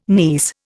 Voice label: "niece", Indian

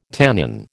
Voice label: "tannin", American

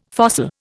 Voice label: "fossil", Indian

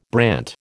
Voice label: "brant", American

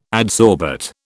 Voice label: "adsorbate", British

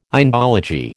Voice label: "Indology", American

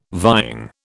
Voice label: "vying", British

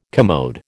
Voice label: "commode", American

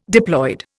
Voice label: "diploid", Indian

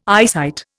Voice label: "eyesight", Indian

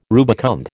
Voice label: "rubicund", American

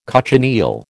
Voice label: "cochineal", American

